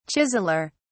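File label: "chiseller", English, British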